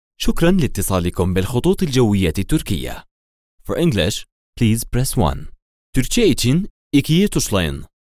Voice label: turkish airlines ivr arabic english turkish; dictor

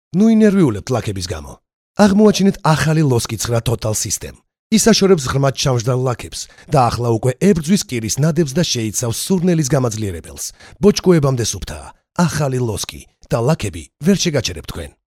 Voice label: georgian male; dictor

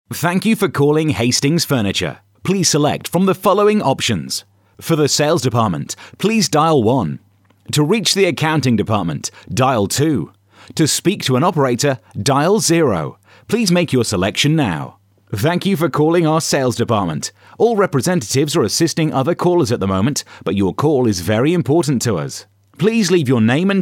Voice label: eng british male; dictor

